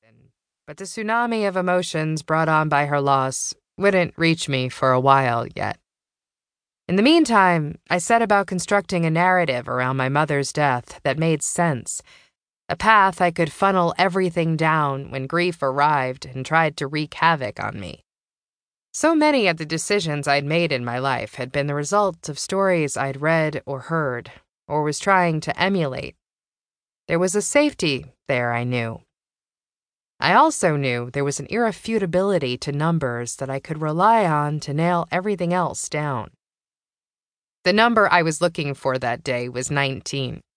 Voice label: Voice Over